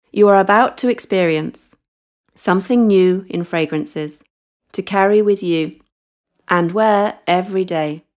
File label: Places, Ingles (Britanico)